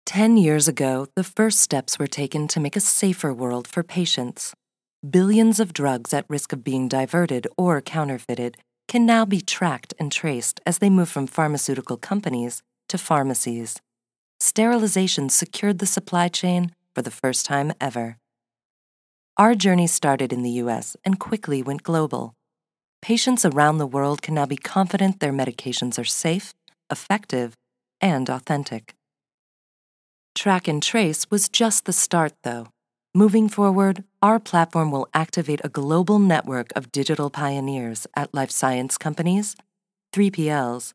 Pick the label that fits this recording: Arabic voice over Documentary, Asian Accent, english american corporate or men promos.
english american corporate